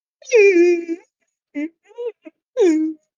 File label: voice message